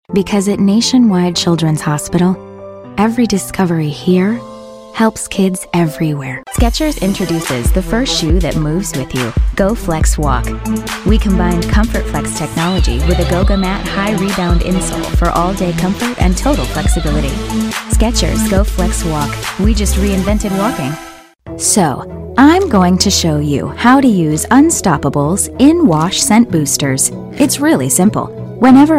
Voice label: Commercial